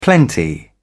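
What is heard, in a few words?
"plenty", British English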